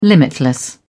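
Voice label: "limitless", British English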